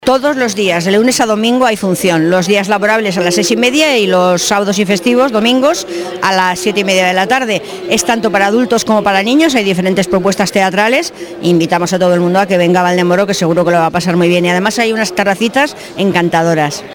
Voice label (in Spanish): noticias